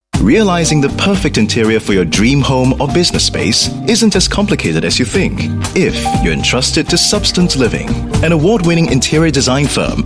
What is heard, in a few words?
Asian English Radio Spot; Substance Living